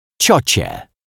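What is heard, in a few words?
"ciocie", Polish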